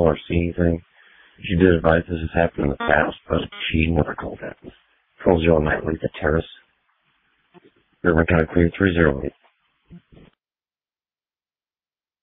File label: Waverly Fire, dispatch